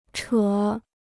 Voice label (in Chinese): "扯", Chinese